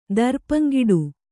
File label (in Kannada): "ದರ್ಪಂಗಿಡು", kannada